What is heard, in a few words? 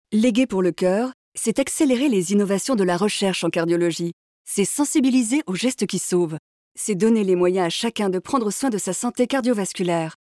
spot; FFC Legue